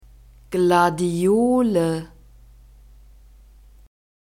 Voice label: "gladiole", German; nouns gender feminine